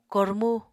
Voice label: "kormu", Apatani